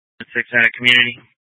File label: Fire Department Dispatch, scanner; Grand Junction